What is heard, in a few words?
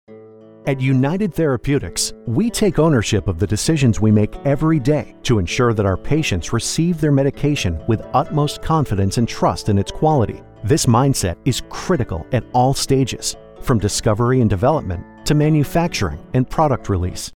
e-learning Biotech, American Male Voice; e-learning Voiceover Demo